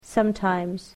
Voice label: "sometimes", English